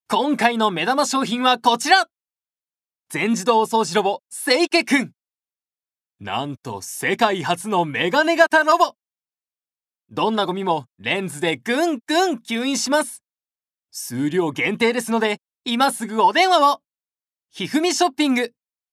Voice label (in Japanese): ナレーション